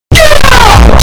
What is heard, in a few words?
get out loud bass boosted; tuco